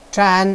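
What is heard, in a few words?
"zhan1", Chinese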